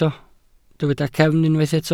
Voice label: "cefn", Welsh